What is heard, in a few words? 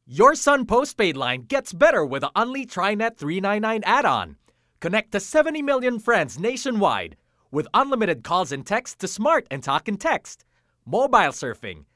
English (Energetic, Warm, Joyful, Selling)